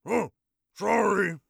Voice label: sorry, orc voice; Orc Voice Pack

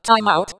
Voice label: "Time Out", voices

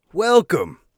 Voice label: welcome, warrior voice; Warrior Voice Pack

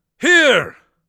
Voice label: here, Mage Voice; Mage Voice Pack

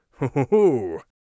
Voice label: thankful, Mage Voice; Mage Voice Pack

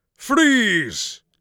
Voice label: freeze, Mage Voice; Mage Voice Pack

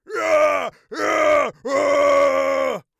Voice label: on fire, orc voice; Orc Voice Pack

